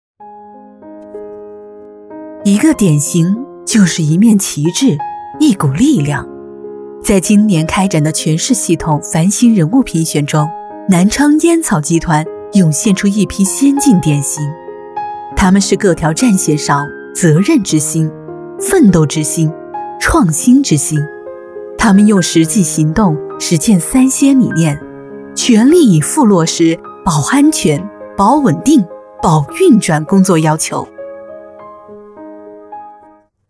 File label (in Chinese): 人物解说; 南昌烟草旗帜标兵